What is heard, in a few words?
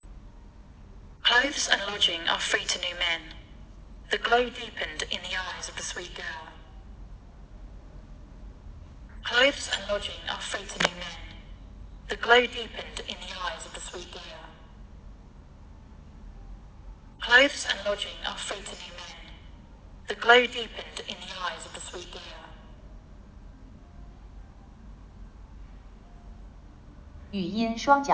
aec test, acoustic echo cancellation; esp32s3